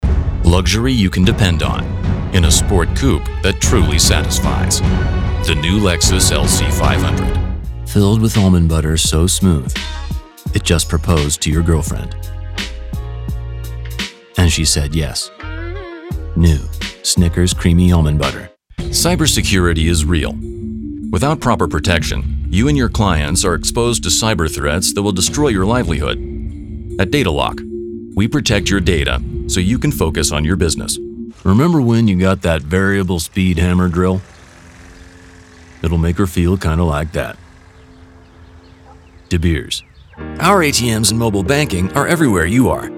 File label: Commercial